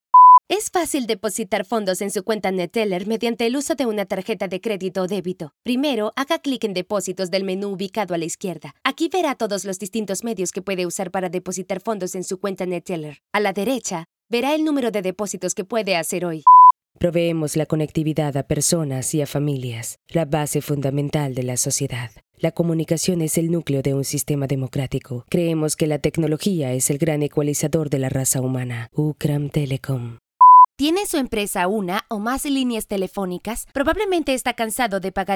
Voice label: Female Voice; quevoces